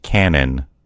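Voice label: "canon", American English; gcompris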